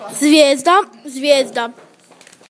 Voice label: Star, Croatian; Zvijezda